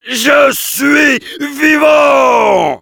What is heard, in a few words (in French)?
positive vocalization; Heavy; fr